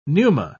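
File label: "pneuma", British English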